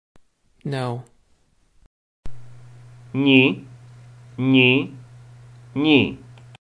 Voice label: UKR No